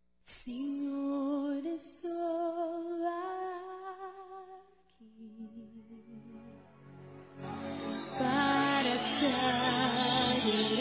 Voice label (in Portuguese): ROMANTICO M, Telemensagens; ANIV. Evangelico